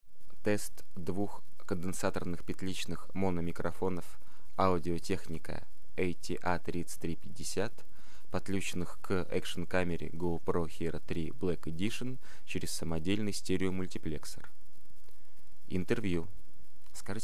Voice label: audio-technica atr3350 stereo